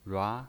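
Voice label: "rua", Mandarin Chinese pinyin voice, second tone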